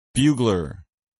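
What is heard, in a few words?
"bugler", English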